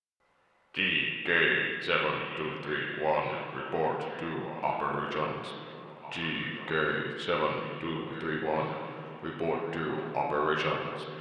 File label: veteran pa; gmod; star wars battlefront 2 galaxy map; rp fortmithraw